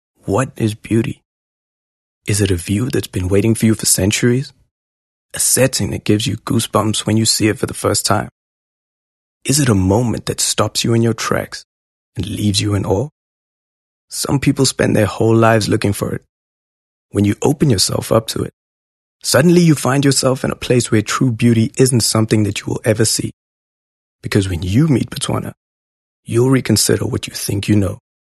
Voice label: English Intimate

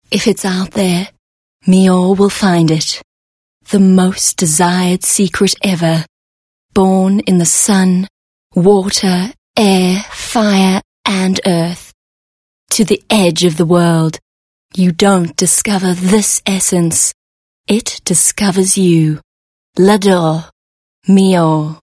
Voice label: English Intimate

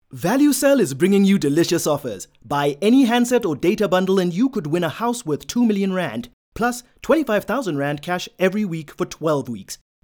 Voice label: English Hard Sell; OSM Talent

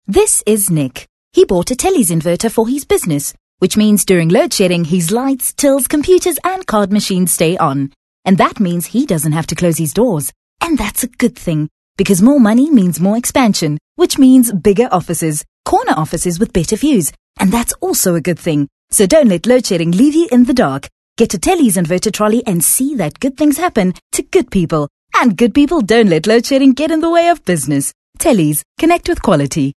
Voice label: English Bright

